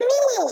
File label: Octoling girl mean